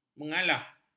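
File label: "mengalah", Malay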